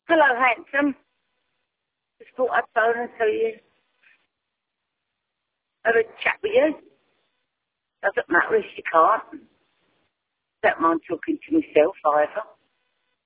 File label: voicemail